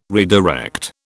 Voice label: "redirect", British